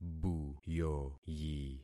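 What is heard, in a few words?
"buyoyi", concatenated syllables; Affixing experiment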